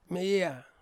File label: "meia", Ansus